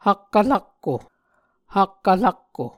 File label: "hakkvrakko", muscogee; Onvkv Ohhvyayices; A Dictionary of Creek/Muskogee; SIL International